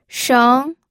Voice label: "sheng2", pinyin

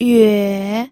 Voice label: "yue3", pinyin

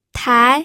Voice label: "tai2", pinyin

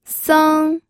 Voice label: "seng1", pinyin

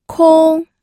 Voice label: "kong1", pinyin

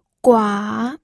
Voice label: "gua3", pinyin audio

